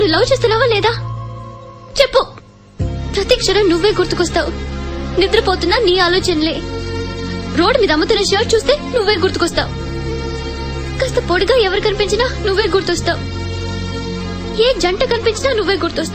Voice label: Dialogue Ringtone; Ileana; Pokiri